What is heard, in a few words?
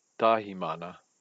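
"tahimana", Maori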